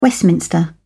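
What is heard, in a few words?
"westminster", British English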